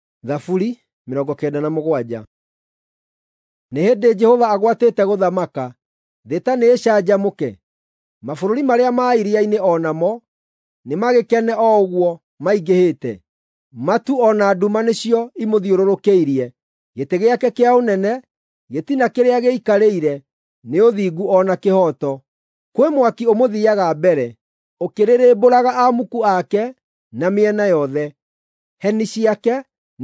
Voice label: psalms, gikuyu drama

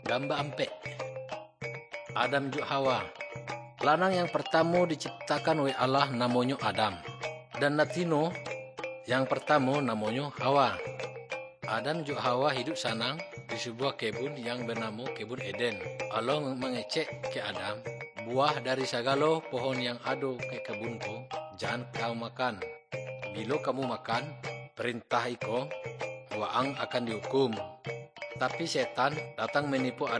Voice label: good news minangkabau muko muko; malay; kaba ilo

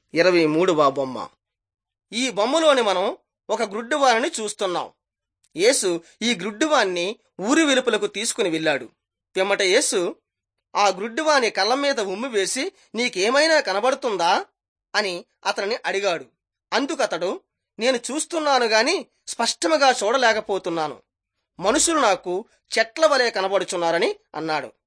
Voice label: telugu bangladesh; jesus teacher and healer

